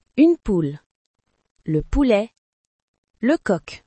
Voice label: Chicken in french